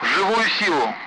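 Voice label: Speech Sound Russian Common Allied Flight; Target; infantry; DCS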